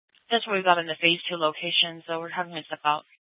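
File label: police department dispatch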